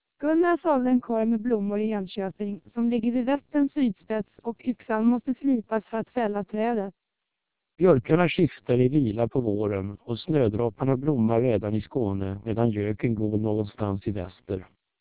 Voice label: twelp short, Swedish, TWELP 1200 bps Vocoder

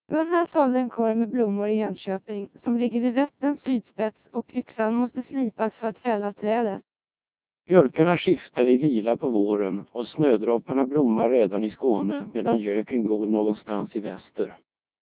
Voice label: Swedish, CODEC2 1200 bps

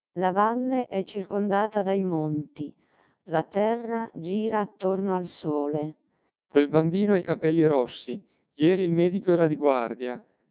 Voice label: Italian, CODEC2 1200 bps

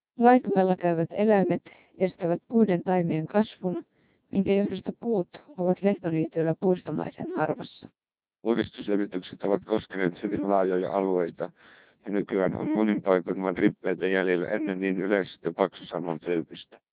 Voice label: Finnish, CODEC2 1200 bps

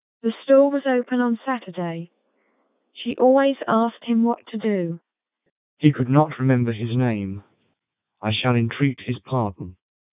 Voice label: eng short; AMBE 2400

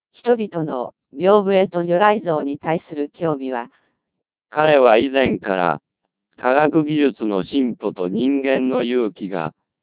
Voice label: Japanese, CODEC2 1200 bps

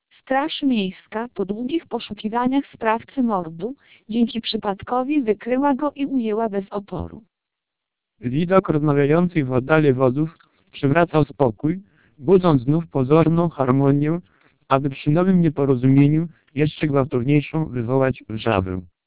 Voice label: 1200 bps TWELP, Polish

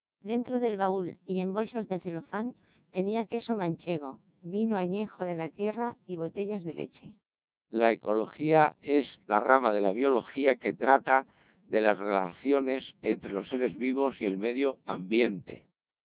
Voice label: Spanish, CODEC2 1200 bps

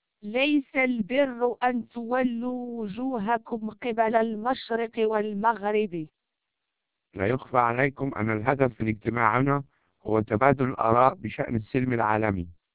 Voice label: 1200 bps TWELP, Arabic

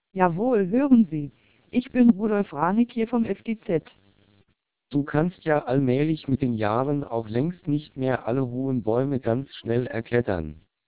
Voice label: 1200 bps TWELP, German

